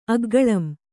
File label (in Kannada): "ಅಗ್ಗಲಂ", Kannada